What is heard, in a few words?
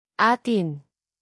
"atin", tagalog; tagalog pronouns